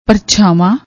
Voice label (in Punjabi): "parchaavan", Punjabi